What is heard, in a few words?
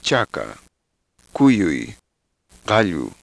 quechua; [ˈt͡ʃaka ˈkujui ˈqaʎu]